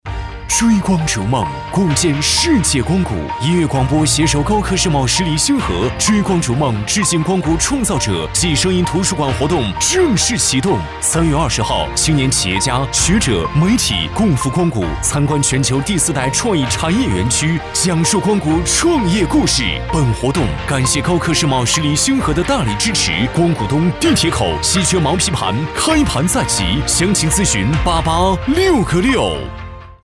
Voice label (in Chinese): 广告浑厚; 地产